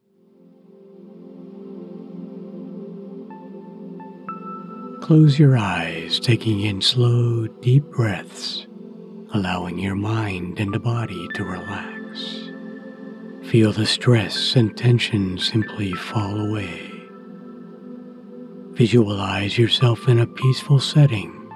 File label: Meditation